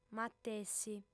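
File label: "matessi", female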